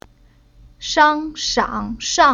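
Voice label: "Shang", Chinese syllable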